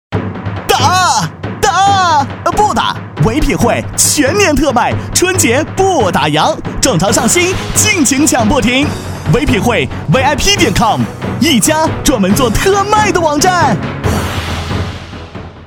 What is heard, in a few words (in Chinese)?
年轻; 广告 品牌形象; 唯品会